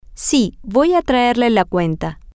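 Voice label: Spanish, female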